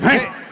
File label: "hey" male